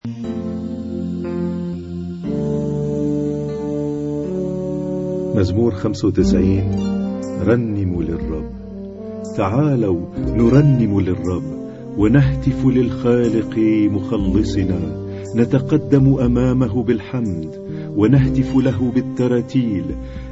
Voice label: Arabic Psalm Zaboor